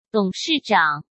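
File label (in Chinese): "董事长", Mandarin Chinese; hsk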